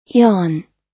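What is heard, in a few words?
"yawn", US English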